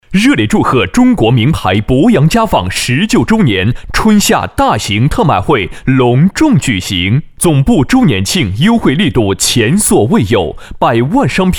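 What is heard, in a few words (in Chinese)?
男国大音-活动促销; 博洋家纺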